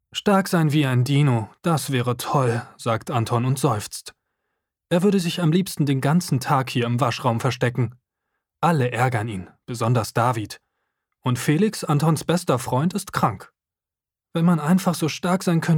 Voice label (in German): hoerbuch